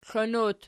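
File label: "klon" plural, Archi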